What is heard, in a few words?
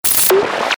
Babble; Best Of N2O Library; Breakers & Transitioners